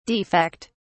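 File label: "defect", British English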